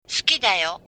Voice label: i love you, japanese